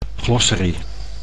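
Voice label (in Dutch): "glossary", Dutch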